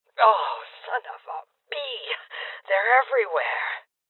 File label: Voices Heavy Losses Female Old; rebel cops 2019